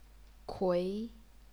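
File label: "kui", Chinese, second tone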